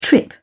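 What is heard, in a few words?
"trip", British English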